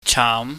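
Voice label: "chaam", Cantonese, mid rising tone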